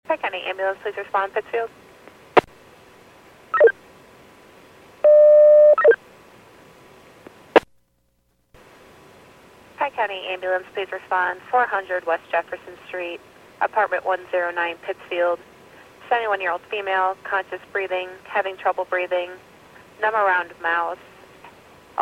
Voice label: PAGING